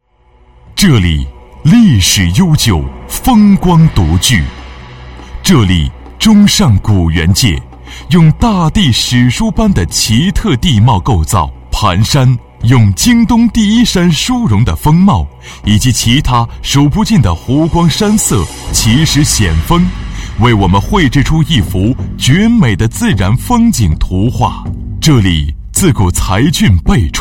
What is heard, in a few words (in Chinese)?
专题 形象片